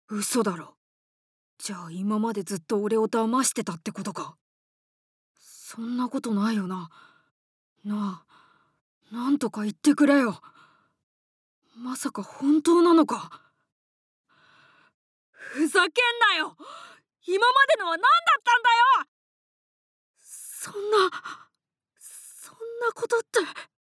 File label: Young boy